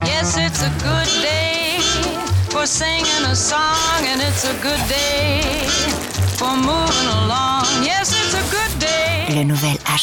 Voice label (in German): Werbung FR CH, flippig frech verspielt